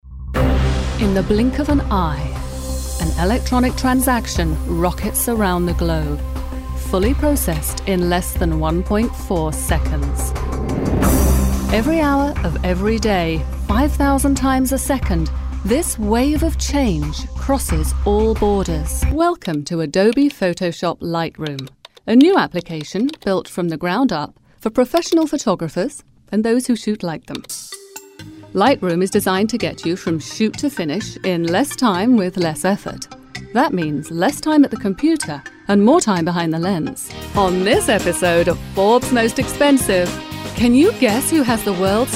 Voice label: Narration